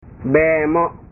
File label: "bemo", bahasa